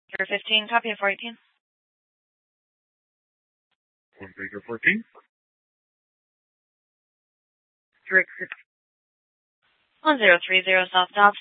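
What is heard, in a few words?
police department Dispatch, scanner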